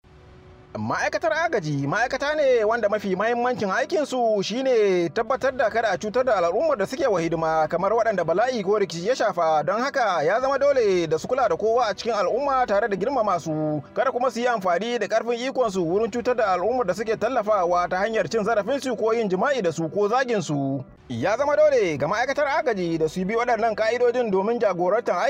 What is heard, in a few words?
radio jingle Hausa